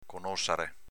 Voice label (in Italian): "conossare", dialetto veneto